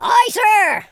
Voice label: "yes sir", Pirate

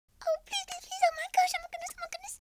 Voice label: pray, Southern Belle; speech

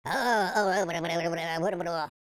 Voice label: scared, speech, Pirate

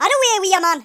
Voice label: "leave me alone", Geordie; speech

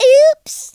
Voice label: "oops", Cowgirl; speech

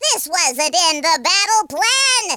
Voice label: oh dear, speech, Alien; Worms3D

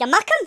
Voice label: "traitor", Geordie; speech

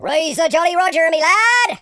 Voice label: perfect, speech, Pirate

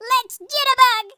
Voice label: "first blood", Grandpa

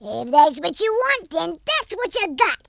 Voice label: "yes sir", American Wrestler

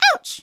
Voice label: ouch, Nagging Wife

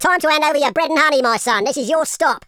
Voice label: victory, London Cabbie; speech

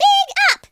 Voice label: "fireball", Cowgirl; speech

